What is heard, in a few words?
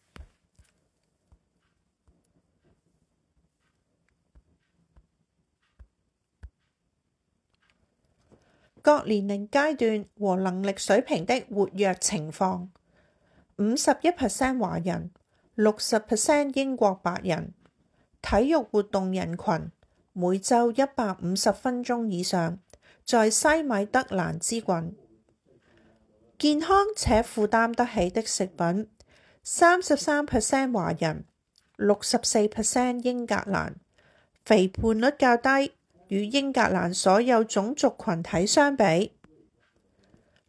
cantonese audio version; Chinese Health Profile infographic middle column; community health profiles